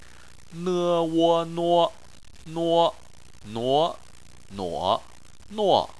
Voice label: "nuo" Chinese